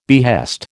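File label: "behest", American English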